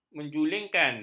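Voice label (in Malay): "menjulingkan", Malay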